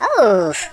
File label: "ouch", Finnish; Worms Armageddon